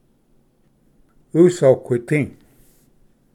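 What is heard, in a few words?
"usoquiti", Cherokee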